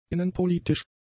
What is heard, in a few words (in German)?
"innenpolitisch", German; adjectives